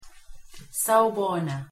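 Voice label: "sawubona", Zulu, pronunciation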